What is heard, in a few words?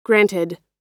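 "granted", American English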